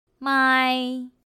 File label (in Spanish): "māi", Chino